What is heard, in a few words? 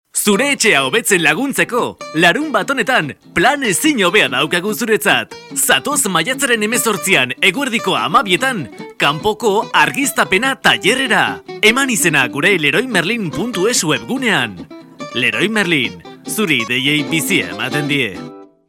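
Basque; generico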